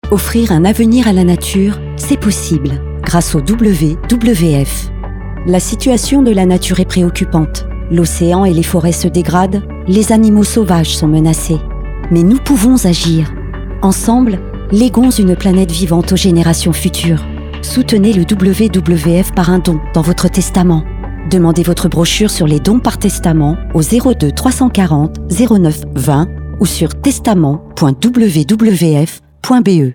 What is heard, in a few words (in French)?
Spot radio; WWF